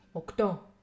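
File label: "okto", female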